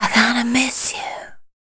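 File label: vocal female, Dance Vocals, spoken words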